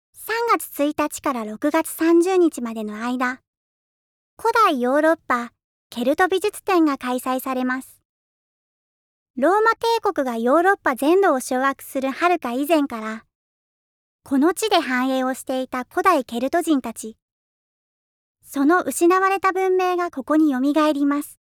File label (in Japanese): ナレーション